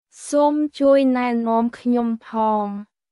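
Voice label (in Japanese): クメール語（カンボジア語）の日常会話基本フレーズ; クメール語は、カンボジア王国の公用語で、独特のやわらかい響きが特徴です。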